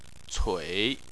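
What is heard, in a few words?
"cui" third tone, Chinese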